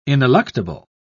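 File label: "ineluctable", British English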